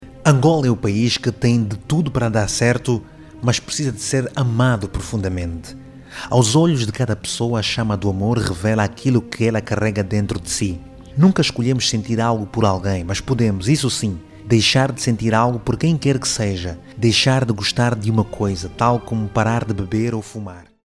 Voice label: angolan portuguese voice over locutor angola